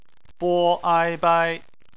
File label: bai, Chinese Phonetics